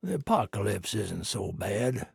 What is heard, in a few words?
trader male like greeting